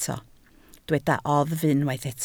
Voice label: "oddf", Welsh

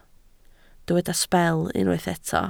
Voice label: "sbel", Welsh

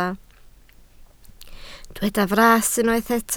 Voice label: "fras", Welsh

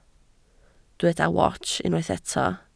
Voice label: "wats", Welsh

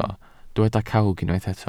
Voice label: "cawg", Welsh

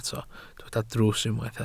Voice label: "drws", Welsh

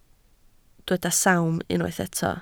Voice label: "sawm", Welsh

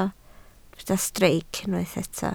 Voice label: "streic", Welsh

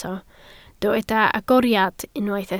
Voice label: "agoriad", Welsh

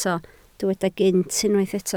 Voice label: "gynt", Welsh

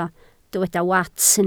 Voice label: "wats", Welsh

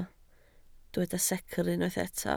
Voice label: "secr", Welsh

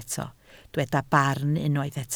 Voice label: "barn", Welsh

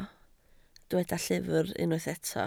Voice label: "llyfr", Welsh

